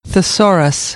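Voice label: "thesaurus", American, English; AmericanBritish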